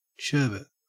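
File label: "sherbet", Australian English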